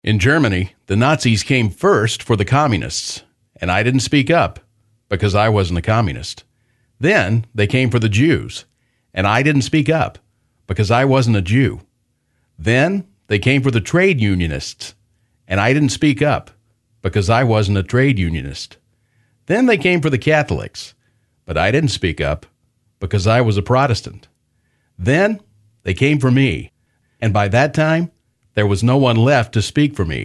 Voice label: audiobook